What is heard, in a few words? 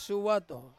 "suwato" to grow, Ansus